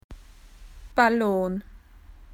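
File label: "balloon", Australian English; PictureDictionary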